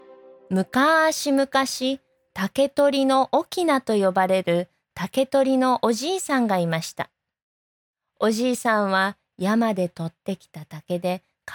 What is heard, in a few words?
Japanese